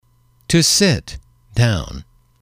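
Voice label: "to sit down", English, male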